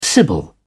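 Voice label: "sibyl", British English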